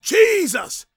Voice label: preachin, gospel preacher; Spectrasonics; Vocal Planet Vol.1 Gospel; akai

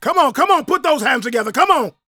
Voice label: PREACHIN, Gospel, PREACHER; Spectrasonics; Vocal Planet Vol.1 Gospel

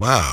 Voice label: "wow", vox; E-Lab; X Static Goldmine 2; akai

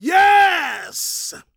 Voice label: PREACHIN, Gospel, PREACHER; Spectrasonics; Vocal Planet Vol.1 Gospel

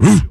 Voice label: vox fx; E-Lab; X Static Goldmine 2; akai

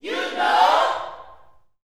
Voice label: "U KNOW", Gospel shout; Spectrasonics; Vocal Planet Vol.1 Gospel; akai; UYC SHOUTS

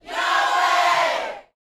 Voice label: "YAHWEH", Gospel shout; Spectrasonics; Vocal Planet Vol.1 Gospel; akai; UYC SHOUTS

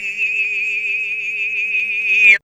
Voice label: FX VOX

